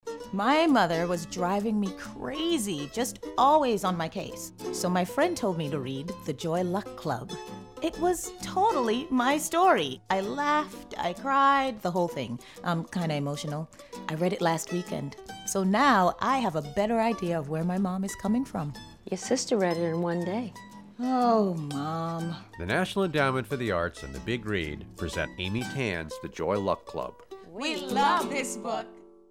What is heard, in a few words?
radio